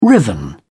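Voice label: "riven", British English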